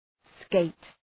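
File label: "skate", English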